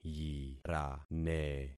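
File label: "yirane", concatenated syllables; Affixing experiment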